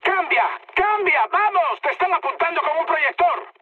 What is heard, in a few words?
searchlite, mood high, speech; War Thunder